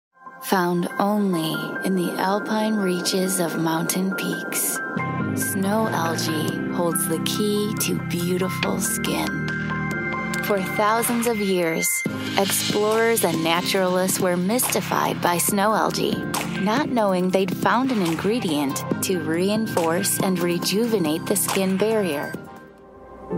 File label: Narration Female